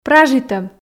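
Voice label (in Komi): "пражитӧм" Komi, female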